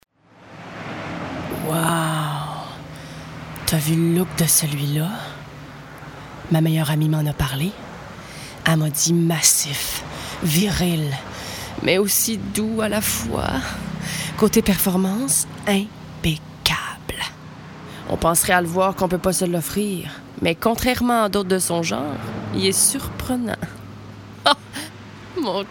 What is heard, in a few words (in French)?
voix femmes; dodge